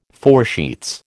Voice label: "foresheets", American

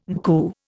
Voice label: "NCU", Indian

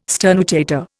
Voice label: "sternutator", Indian

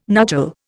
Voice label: "nuchal", Indian

